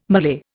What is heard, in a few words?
"Malay", Indian